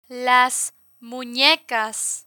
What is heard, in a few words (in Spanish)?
"las munecas", Spanish